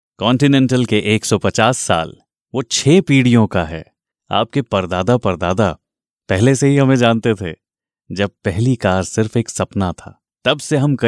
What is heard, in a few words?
hindi automotive